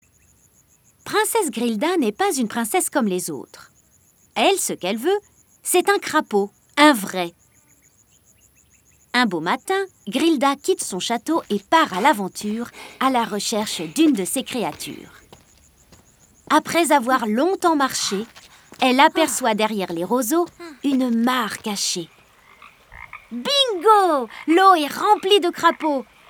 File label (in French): LIVRE JEUNESSE; Princesse Grilda